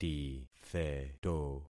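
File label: "titheto", concatenated syllables; Affixing experiment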